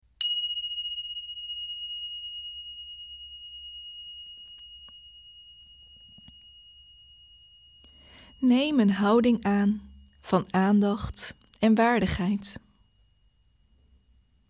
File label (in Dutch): De zitmeditatie